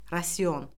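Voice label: "rasion", Azerbaijani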